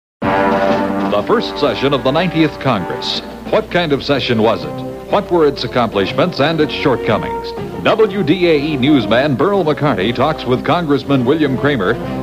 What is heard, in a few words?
promo; WDAE; Focus'67; 1967